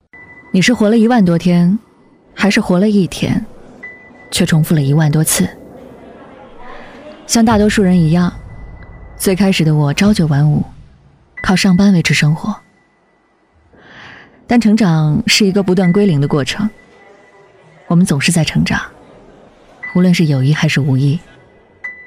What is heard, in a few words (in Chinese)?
女 独立女性自白; 优质知性